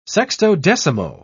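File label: "sextodecimo", British English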